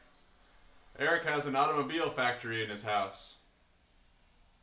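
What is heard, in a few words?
factory clean, Clean Speech; Lombard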